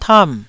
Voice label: "tam4", Taiwanese